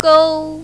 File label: "gou1", mandarin, female